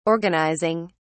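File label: "organising", British English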